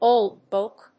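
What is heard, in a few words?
"old book", English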